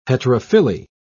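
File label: "heterophylly", English, British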